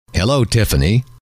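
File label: hello, male; trainer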